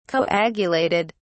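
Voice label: "coagulated", English, British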